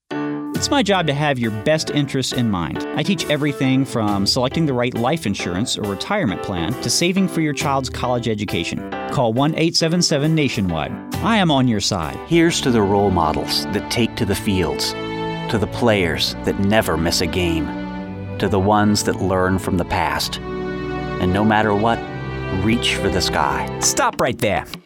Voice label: Commercial